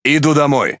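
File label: Russian Speech Pilots Bomber Land; World Of Warships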